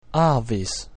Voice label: "avis", latin